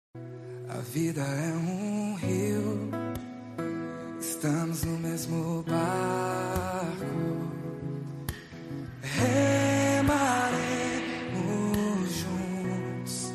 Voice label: Voz Feminina; Aniversário de Sobrinho

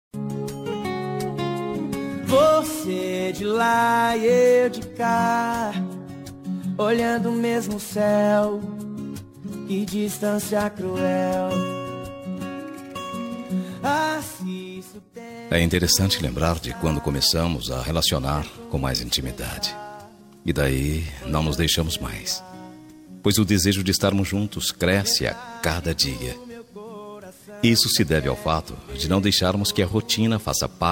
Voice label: dia dos namorados amante, voz masculina; dia dos namorados amantes voz masculina

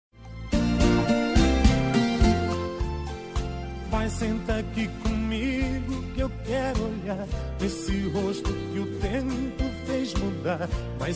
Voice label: masc, Dia dos Pais – Voz Masculina; Voz Masculina Linda

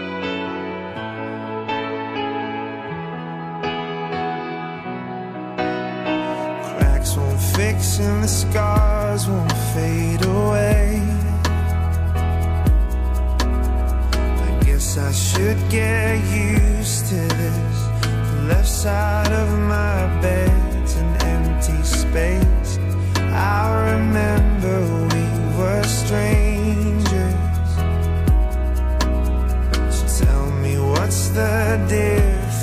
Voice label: dia dos namorados namorada, Dia dos Namorados – Para Namorada – Voz Masculina

